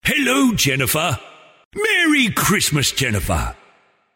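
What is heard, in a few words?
Personalised Santa Message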